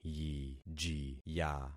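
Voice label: "yijiya", concatenated syllables; Affixing experiment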